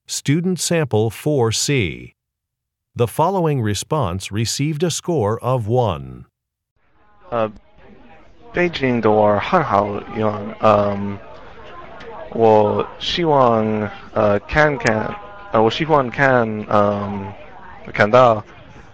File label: chinese conversation